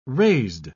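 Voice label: "raised", British English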